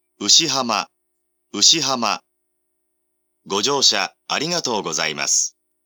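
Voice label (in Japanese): 到着放送, ATOSタイプF(男声), 青梅線; 鳴動タイミングはやや早めです。; 牛浜; スピーカー JVC横長型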